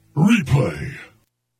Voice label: battle narrator replay